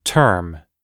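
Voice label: "term", English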